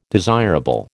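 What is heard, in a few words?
"desirable", American English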